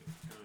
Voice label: recordin Mic Input; flstudio; Vocals